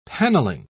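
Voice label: "panelling", British English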